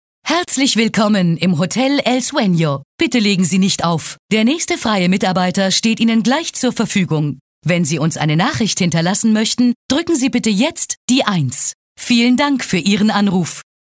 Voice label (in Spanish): locutora alemana; Deutsche Sprecherin